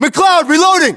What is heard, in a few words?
US inform reloading, battlechatter, english